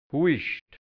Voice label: "Huischt", Hianzisch, Burgenland; w Husten

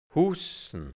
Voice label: "hussn", Hianzisch Mundart; aufhetzen; aufwiegeln hussen

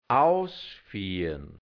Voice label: "ausfiahrn", hianzisch Mundart, Burgenland; ausführen; entwenden

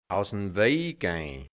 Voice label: "ausn We(i) geih(n)", hianzisch Mundart Burgenland; ausweichen

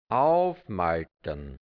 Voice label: "aufmaltern", hianzisch; aufmauern

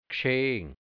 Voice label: "gschegn", Mundart, Burgenland, hianzisch; geschehen

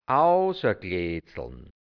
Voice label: "ausakleedsln", hianzisch Mundart, Burgenland; mit dem Finger herauslösen

